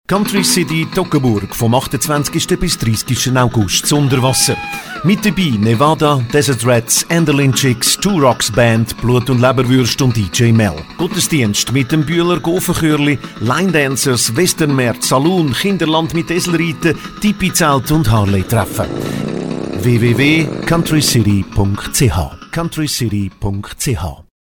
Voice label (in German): Werbung; Country City Toggenburg